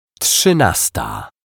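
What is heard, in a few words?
"trzynasta", Polish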